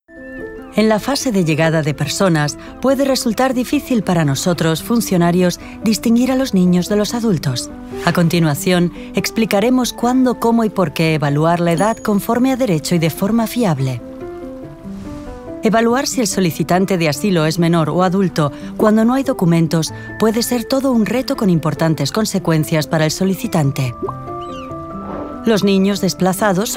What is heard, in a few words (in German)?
imagefilm